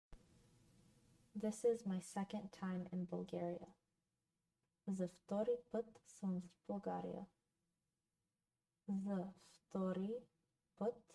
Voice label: This is my second time in Bulgaria, bulgarian; the talking bulgarian phrasebook